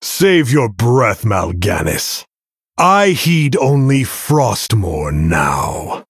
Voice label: Male Human; Arthas Menethil; Player Equip Weapon